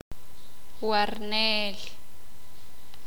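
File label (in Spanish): "Huésped", Sakapulteko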